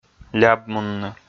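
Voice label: "лябмунны" Komi, male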